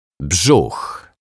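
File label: "brzuch", Polish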